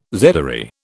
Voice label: "zedoary", British